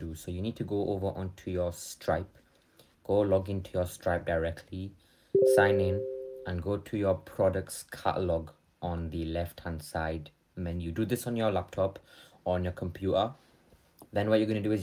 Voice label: saas config voicenote